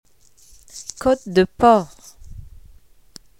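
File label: "pork chop", French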